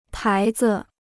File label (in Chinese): "牌子", Chinese